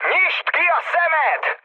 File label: player friendly fire, mood high, speech hun